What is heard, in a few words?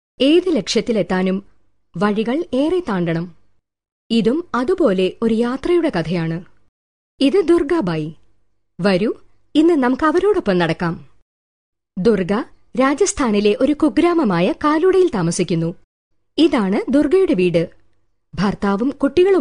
Malayalam